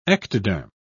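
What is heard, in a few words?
"ectoderm", British English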